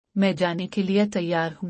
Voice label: I am ready to go - urdu; Dress and Appearance

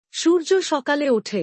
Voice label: The sun rises in the morning, bangla; Time and Routine

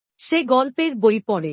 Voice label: She reads a storybook, Bangla; Present Tense

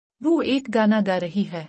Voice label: She is singing a song, Urdu; Present Tense

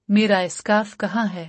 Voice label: Where is my scarf - urdu; Dress and Appearance

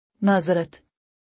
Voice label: "Sorry", Urdu; Basic Greetings and Courtesy

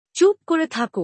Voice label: Be quiet, bangla; Simple Commands

